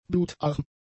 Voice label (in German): "blutarm", German; adjectives